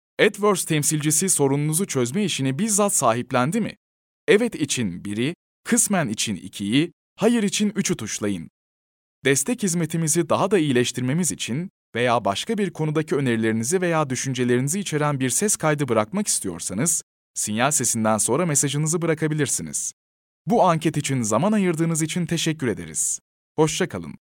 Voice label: male turkish voice over talent upbeat

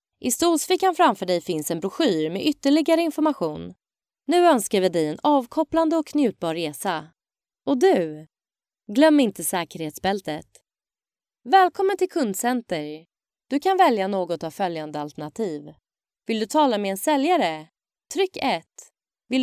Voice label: female swedish voice over narrative

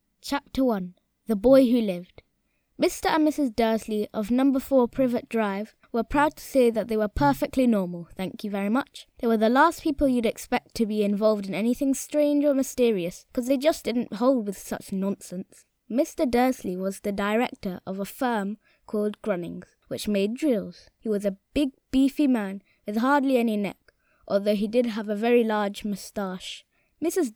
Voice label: boy british voice over narrative; english british

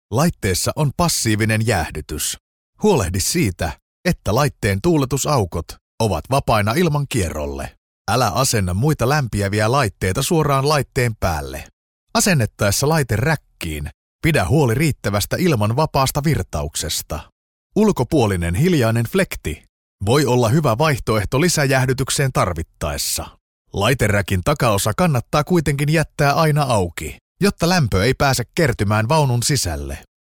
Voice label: male finnish voice over narrative

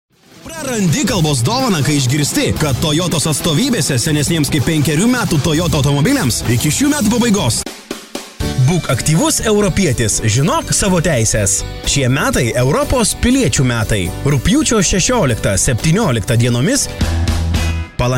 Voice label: male lithuanian voice over talent sales

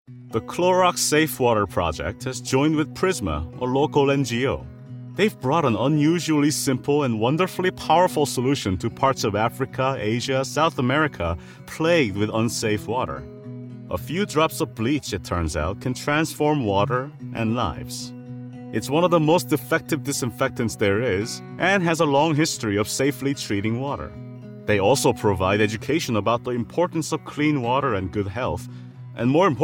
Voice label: male korean voice over talent english

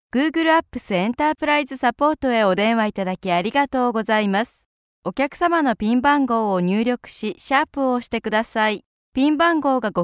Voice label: female japanese voice over upbeat

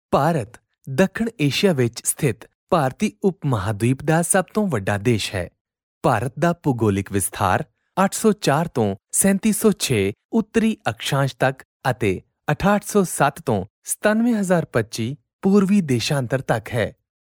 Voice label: male punjabi voice over talent sales

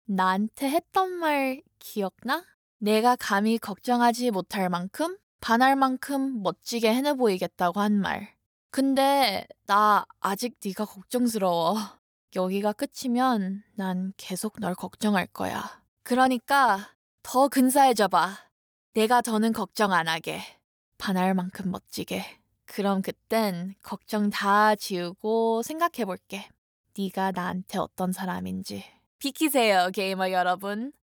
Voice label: female korean voice over character

